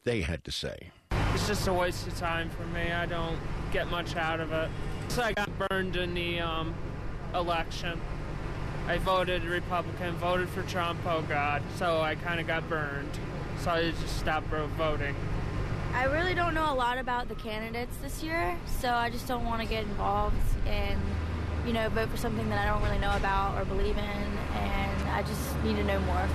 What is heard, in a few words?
student vox